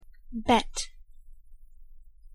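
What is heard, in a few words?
"bet", English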